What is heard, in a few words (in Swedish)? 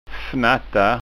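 "fnatta", framtidsskånska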